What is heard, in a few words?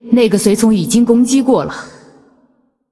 Female Ghost; ERROR MINION ATTACKED; zh